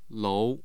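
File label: "lou", Mandarin Chinese, tone 2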